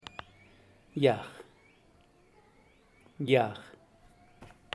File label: "yaj", arbol, zapoteco teotitlan